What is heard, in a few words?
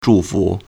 "zhu4 fu2", Chinese